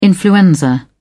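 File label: "influenza", British English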